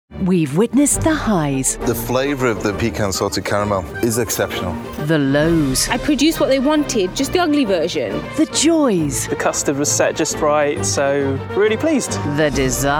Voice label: trail, voice over